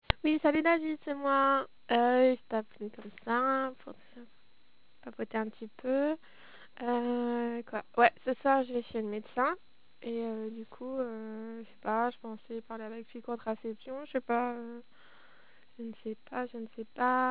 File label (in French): message telephoniques